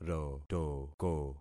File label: "rotoko", concat syllables; Affixing experiment